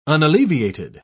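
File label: "unalleviated", British English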